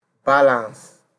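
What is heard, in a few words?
"balance", Nigerian Pidgin, male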